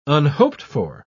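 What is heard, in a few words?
"unhoped-for", British English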